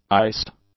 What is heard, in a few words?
"iced", English; adjectives